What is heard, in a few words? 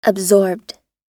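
"absorbed", American English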